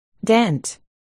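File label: "dent", spoken word, articulation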